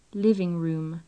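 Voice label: living room, British English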